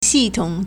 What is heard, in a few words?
"xi4tong3", Chinese